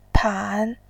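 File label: "pan", Mandarin, tone 3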